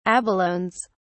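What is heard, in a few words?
"abalones", British English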